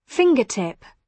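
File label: "fingertip", British English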